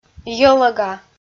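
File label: "йӧлӧга" Komi, female; audio dict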